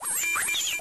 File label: robot go away; minetest; techpack